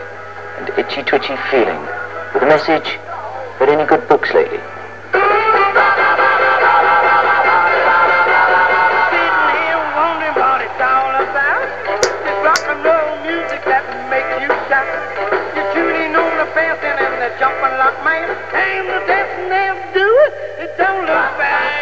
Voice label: Radio 219 recording dog request